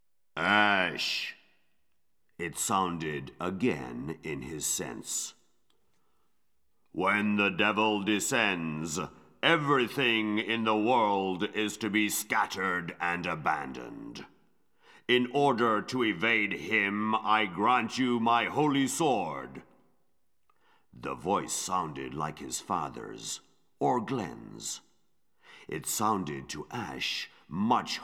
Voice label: Narration; Passing Down the Holy Sword

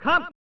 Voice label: "Kont!" (Male), mahjong; pro mahjong kiwame 2; snes

